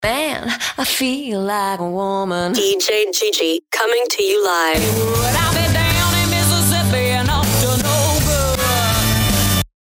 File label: dj